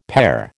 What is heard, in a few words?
"pare", American